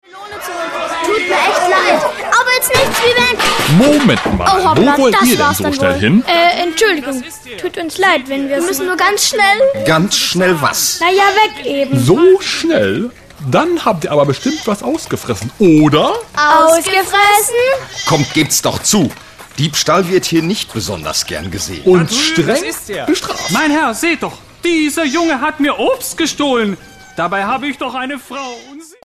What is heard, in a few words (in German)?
sprechszene